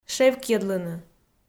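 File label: "шевкйӧдлыны", Komi, female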